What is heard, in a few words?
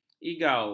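"igau", Malay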